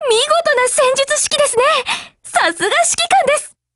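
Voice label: win, Japanese; GF Alarm; Carcano1891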